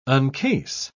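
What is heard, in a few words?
"uncase", British English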